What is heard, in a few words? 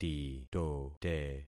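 "titote", concatenated syllables; Affixing experiment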